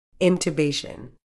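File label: "intubation", British English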